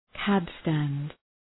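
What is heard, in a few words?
"cab stand", English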